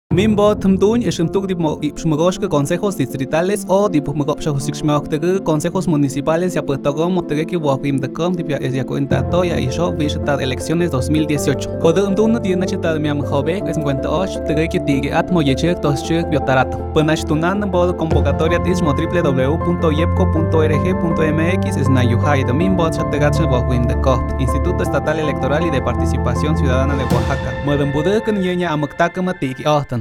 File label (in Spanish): Spot legua mixe